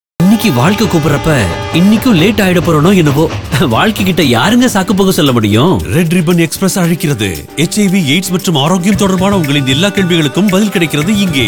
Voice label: Radio Tamil

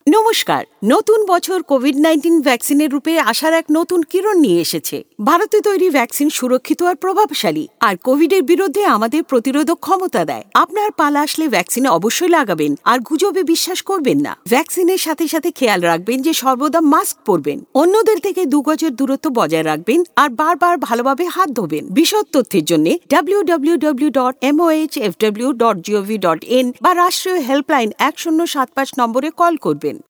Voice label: Bangla Caller Tune

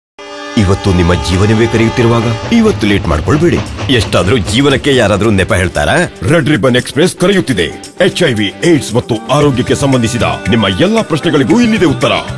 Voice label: Radio Kannada

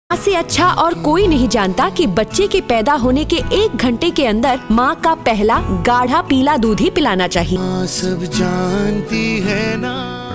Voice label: Breastfeeding Hindi

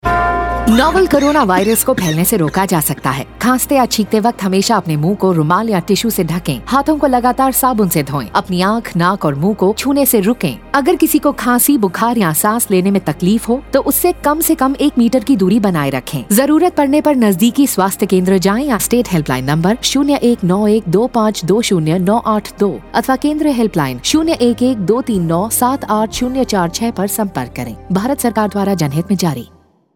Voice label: Cough Radio, Hindi; Jammu; Radio spot on key behaviours COVID-19; SARS-CoV-2; UNICEF IEC eWarehouse

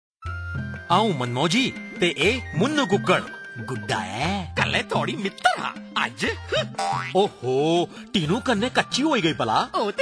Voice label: Radio PSA, Dogri; Prevention-Separate Radio PSA (Dogri) UNICEF IEC eWarehouse; This is first in the series of five Radio PSA, address backyard farmers and their families. It uses a performer and a rooster puppet

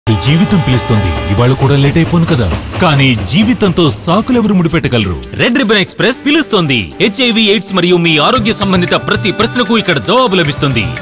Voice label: Radio Telugu

